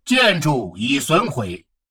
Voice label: advisor notification building ruined, chinese; Stellaris

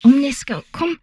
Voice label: humanoid female; Stellaris

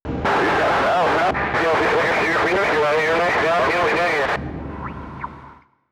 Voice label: radio chatter; Stellaris

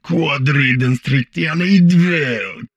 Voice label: humanoid portrait male; Stellaris; humanoids

